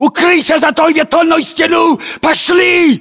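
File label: order cover wall cinderblock, battlechatter, Russian; localized english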